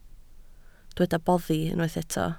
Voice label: "boddi", Welsh

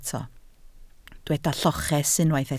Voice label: "lloches", Welsh

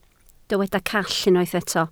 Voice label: "call", Welsh

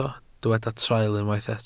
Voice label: "traul", Welsh

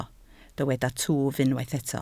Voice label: "twf", Welsh

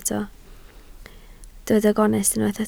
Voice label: "gonest", Welsh

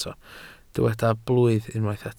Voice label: "blwydd", welsh

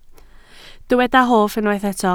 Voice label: "hoff", Welsh

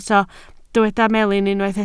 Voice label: "melin", Welsh

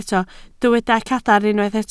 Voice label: "cadarn", Welsh

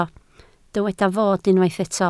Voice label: "fod", Welsh

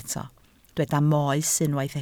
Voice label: "moes", Welsh

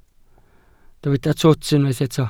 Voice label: "twt", Welsh

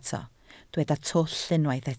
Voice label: "twll", Welsh

